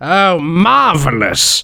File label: negative vocalization